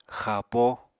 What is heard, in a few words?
"xapo", Apatani; Material Culture